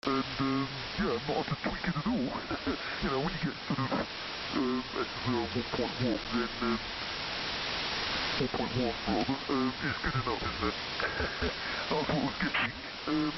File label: Second Inaudible Voice